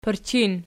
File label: "pirchin", Archi